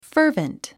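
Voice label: "fervent", English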